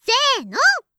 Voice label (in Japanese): "せーの！", 掛け声